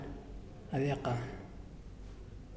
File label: Muyuw What; Lae Unitech